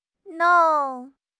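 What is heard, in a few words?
"nong4", Chinese; HSK4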